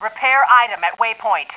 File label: female; Starsiege Tribes